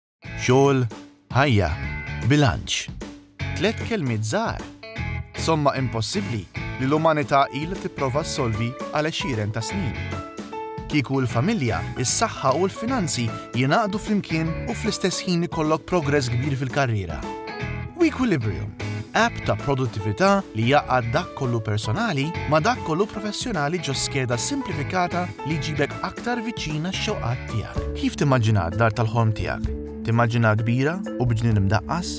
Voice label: Maltese Commercial

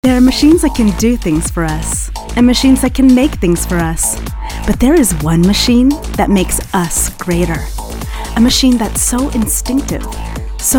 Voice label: Commercial

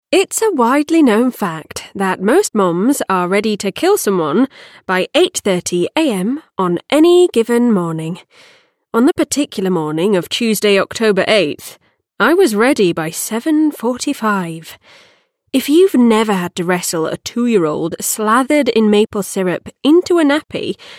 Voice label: Comedy Crime R.P Accent witty flustered

